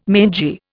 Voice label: "mangy", Indian